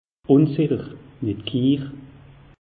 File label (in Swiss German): "unsicher", alsace; meteo